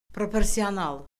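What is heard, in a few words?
"proporsional", Azerbaijani; Orfoqrafiya Lüğəti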